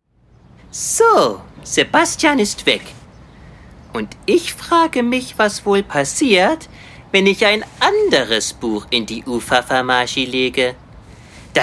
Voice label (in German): Hoerspiel